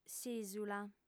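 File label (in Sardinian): "s'ìsula", female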